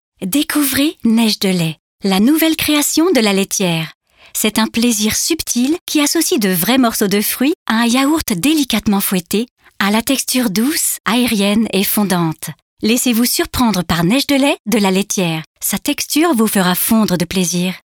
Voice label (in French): Pub promo; Lactalis; Neige de Lait